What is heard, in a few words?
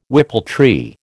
"whippletree", American